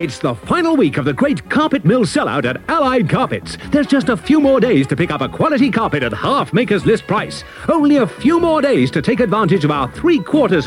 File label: Radio Advert; Radio Hallam; Allied Carpets